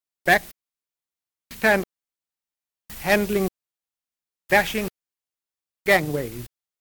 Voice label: rp voyage trap